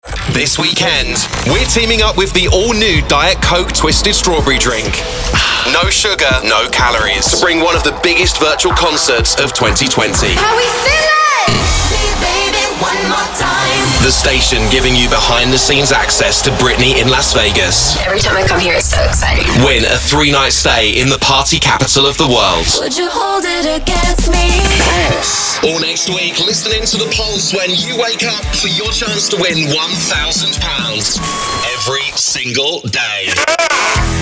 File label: Branding Imaging; radio station sound, youtube branding, podcast intros